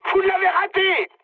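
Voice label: bomb failure, mood high, French; War Thunder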